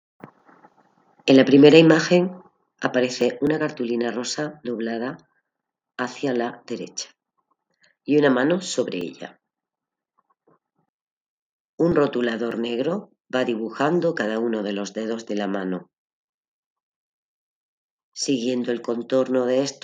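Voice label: count to five in french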